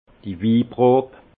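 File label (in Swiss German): "wiprob", Alsace Haut Rhin; vin; séance de dégustation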